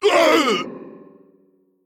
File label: Male Mogu Death